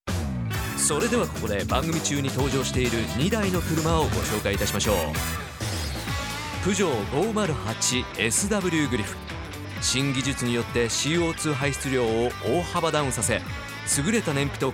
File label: narration